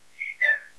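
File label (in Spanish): Voice Acting es F, Spanish, female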